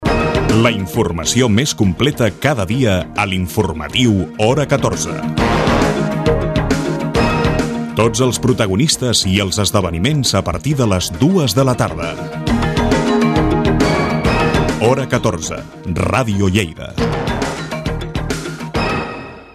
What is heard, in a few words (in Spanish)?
Informativo Publicidad Radio voces comerciales musica; hora 14